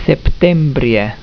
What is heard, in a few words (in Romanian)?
"septembrie", romanian; tijden